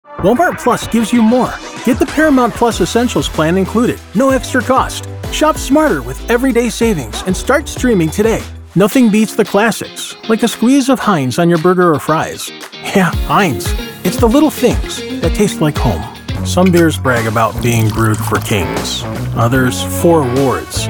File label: Commercial